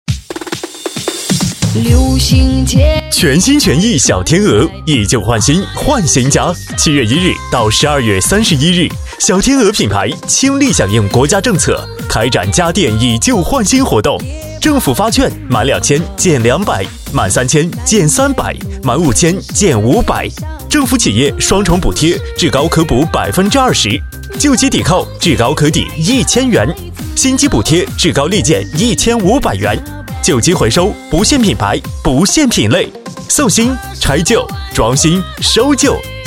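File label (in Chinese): 男促销; 小天鹅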